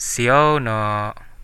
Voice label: sorry, Central Dusun

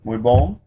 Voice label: fourteen vietnamese

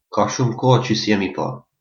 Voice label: long time no see, albanian gheg

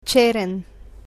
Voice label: cheren, bulgarian colours

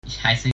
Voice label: my name is, german; Ich heiße...

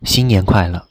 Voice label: "new year", Mandarin; 新年快樂; [新年快乐] (xīnnián kuàilè); Chinese (Mandarin)

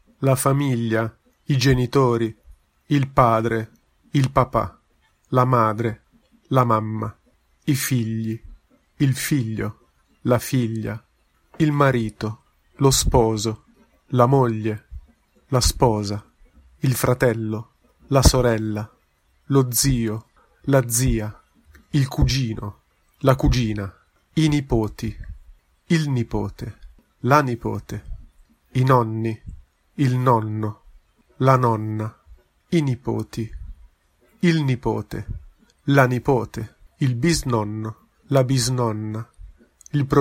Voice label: family, italian

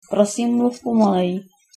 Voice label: speak slowly, czech

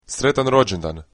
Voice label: birthday, croatian

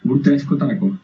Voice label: meet, basque

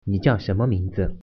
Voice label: name, mandarin; 你叫什麼名字？; Chinese (Mandarin)

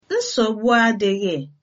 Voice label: youre welcome, igbo

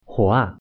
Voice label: "fire", Mandarin

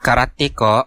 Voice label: do you understand, central dusun

